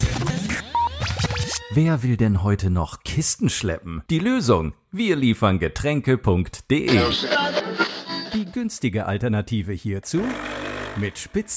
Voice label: Commercial, german voice over actor; Showreel